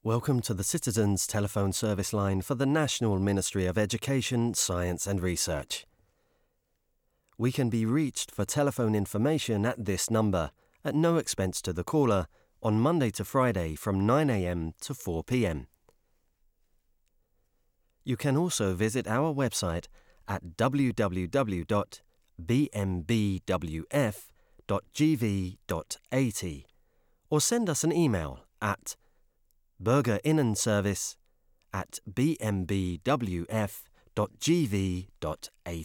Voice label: english british e-learning